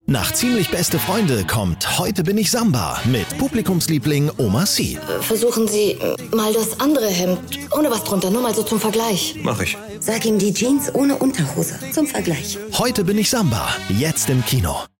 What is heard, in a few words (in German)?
Funkspot